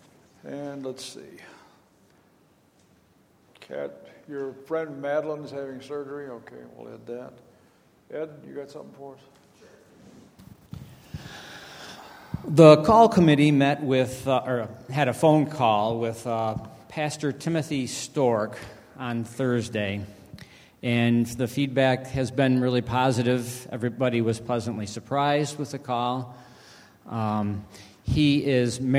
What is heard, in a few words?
Welcome and Announcements